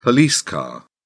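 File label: "police car", British English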